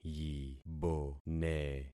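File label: "yibone", concatenated syllables; Affixing experiment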